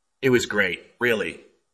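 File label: Voice Acting, Spanish, male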